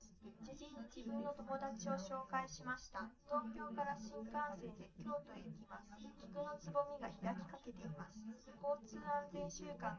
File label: female liverec; SiSEC10; underdetermined